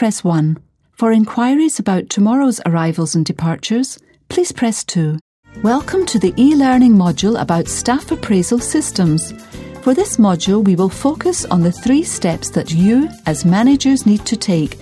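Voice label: British English, narration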